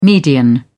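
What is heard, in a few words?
"median", English, British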